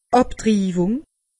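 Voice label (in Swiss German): "abtriwung", Alsatian; medecine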